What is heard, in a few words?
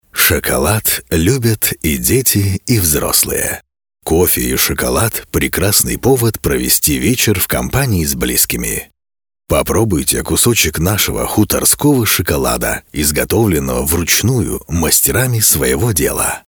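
Russian male Sweet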